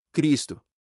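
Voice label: "Cristo", Portuguese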